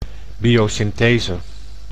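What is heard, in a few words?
"biosynthese", Dutch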